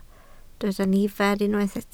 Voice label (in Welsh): "nifer", Welsh